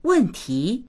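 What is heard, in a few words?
"wen4ti2", Chinese; 问题; 問題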